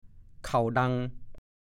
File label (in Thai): "เข่าดัง", ภาษาโคราช